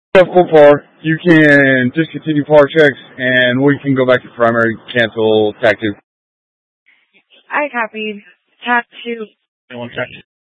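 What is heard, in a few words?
Incident Tac 2, Scanner audio recording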